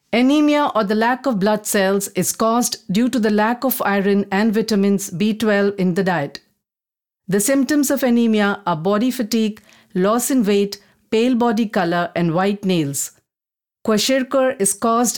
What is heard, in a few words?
female voice english